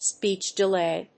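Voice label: "speech delay", English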